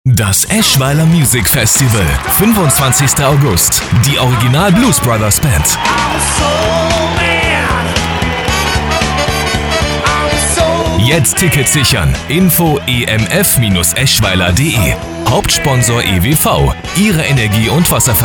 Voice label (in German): Radiospot; EMF 2017